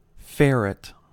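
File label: "ferret", US English; pronunciations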